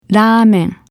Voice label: "Ramen" Japanese